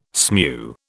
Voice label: "smew", British

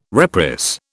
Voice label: "reprice", British

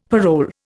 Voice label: "parole", Indian; Dictionary

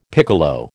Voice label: "piccolo", American

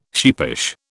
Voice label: "sheepish", British

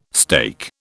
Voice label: "steak", British

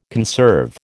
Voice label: "conserve", American

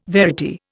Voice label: "verity", Indian

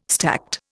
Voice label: "stacte", Indian

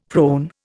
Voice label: "prone", Indian; Dictionary

